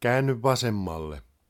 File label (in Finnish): Turn left, Finnish, male; Opas